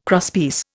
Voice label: "crosspiece", Indian